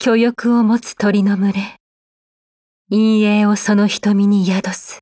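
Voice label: Daily Japanese; Nemesis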